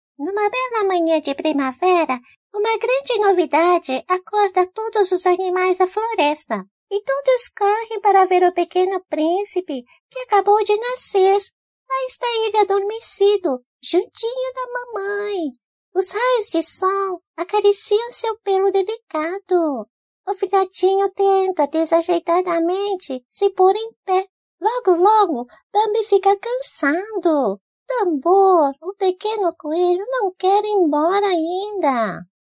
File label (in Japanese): 子供の声; バンビ